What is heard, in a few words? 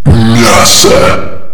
multikill, male